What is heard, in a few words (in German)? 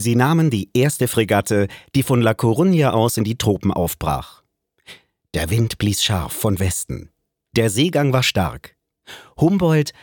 Hoerbuch